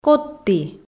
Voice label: "кодті", Komi

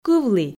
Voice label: "кывлі", Komi

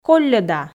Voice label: "колльӧда", Komi

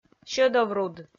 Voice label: "сьӧдовруд", Komi

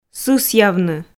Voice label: "сысъявны", Komi

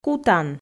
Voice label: "кутан", Komi

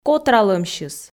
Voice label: "котралӧмсьыс", Komi

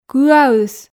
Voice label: "кыаыс", Komi

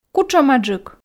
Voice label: "кутшӧмаджык", Komi

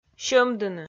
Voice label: "сьӧмдыны", Komi

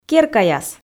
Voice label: "керкаяс", Komi

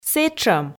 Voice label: "сэтшӧм", Komi

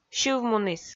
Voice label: "сьыв муніс", Komi

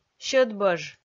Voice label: "сьӧдбӧж", Komi

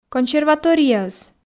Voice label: "консерваторияыс", Komi

